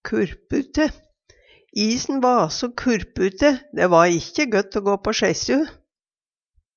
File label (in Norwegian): kurpute, Numedalsmål; Adjektiv